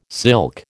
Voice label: "silk", American